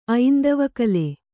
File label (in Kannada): "ಐಂದವಕಲೆ", Kannada